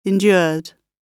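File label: "endured", British English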